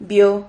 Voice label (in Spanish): "vio", Silabas